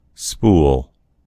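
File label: "spool", American English; gcompris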